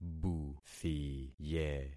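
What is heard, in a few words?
"buthiye", concatenated syllables; Affixing experiment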